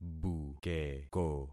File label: "bukeko", concat syllables; Affixing experiment